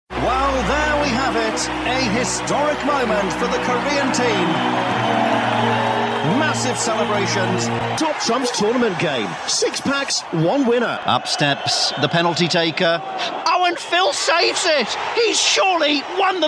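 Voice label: football sports commentator, male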